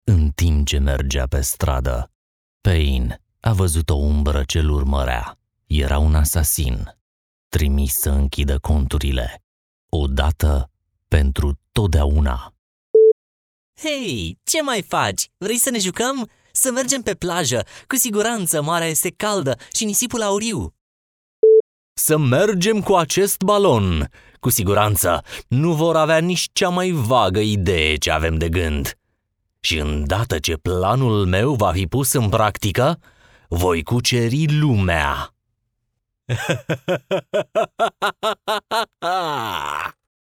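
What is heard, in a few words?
romanian male commercial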